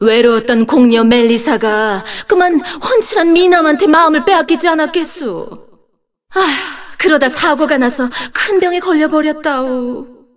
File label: cinematic voice; lineage2